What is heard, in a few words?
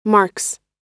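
"marks", American English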